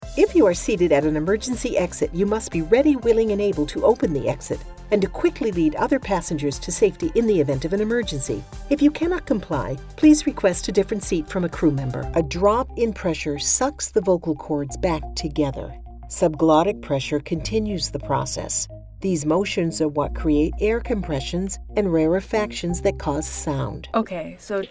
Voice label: NARR

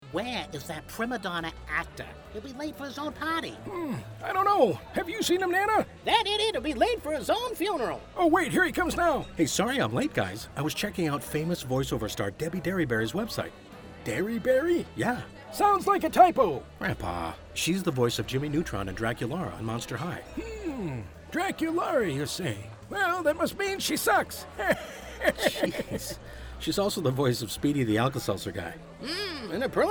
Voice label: Character

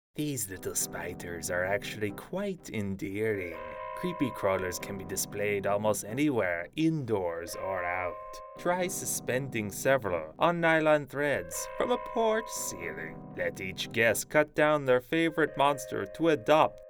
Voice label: Narration

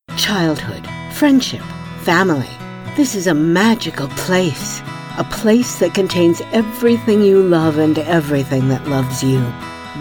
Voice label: Commercial

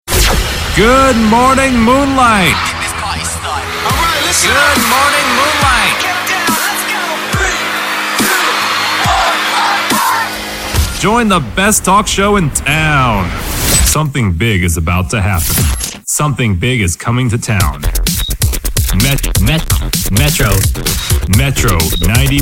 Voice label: RADIO IMAGING

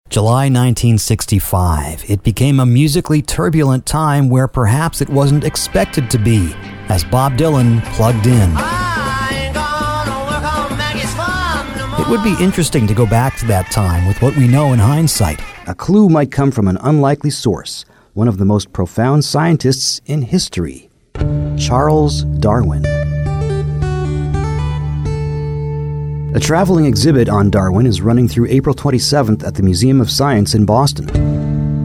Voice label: NARRATION

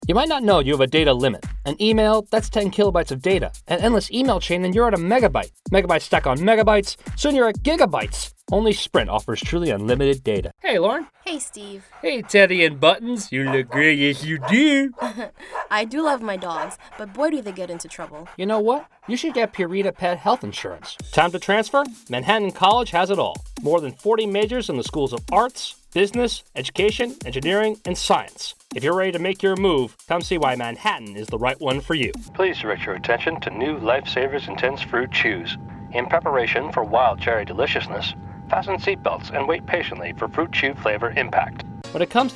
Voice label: Commercial

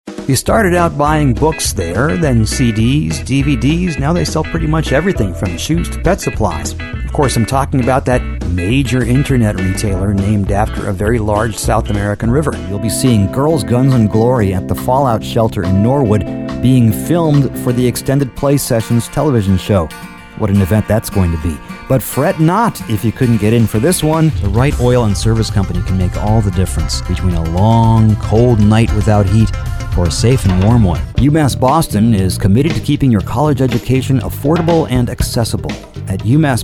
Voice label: COMMERCIALS

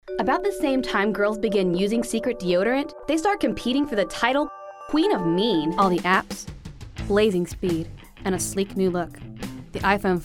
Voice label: commercial

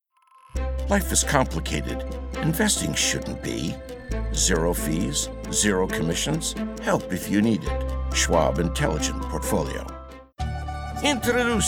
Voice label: commercial

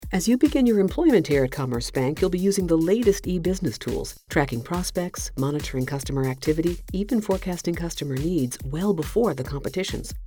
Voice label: NARR

